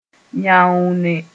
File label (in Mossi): "yãoone", moore